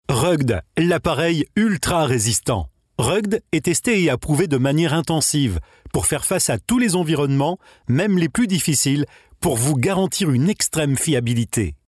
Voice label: vidéo promo produit voix

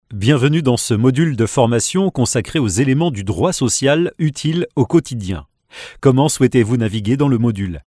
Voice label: e-learning voix off

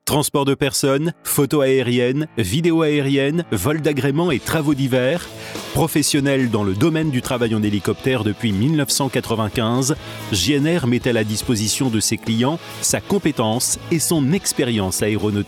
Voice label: NOTORIETE VOIX OFF; pub

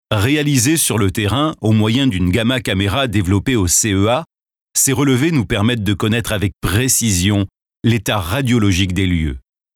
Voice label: VIDEO DOCUMENTAIRE VOIX OFF; institutionnel

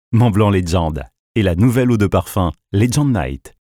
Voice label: PUB VOIX OFF

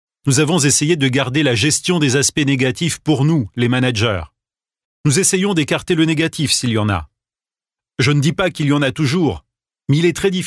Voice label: voice over voix off

